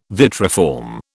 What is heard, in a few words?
"vitriform", British